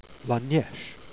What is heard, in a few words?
"nieche", Jèrriais